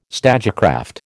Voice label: "stagecraft", American

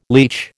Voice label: "leach", American

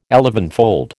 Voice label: "elevenfold", American

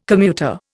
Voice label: "commuter", Indian

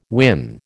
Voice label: "win", American

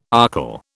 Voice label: "archil", British

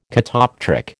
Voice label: "catoptric", American

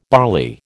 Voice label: "barley", American

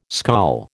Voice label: "skol", American

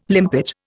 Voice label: "limpet", Indian

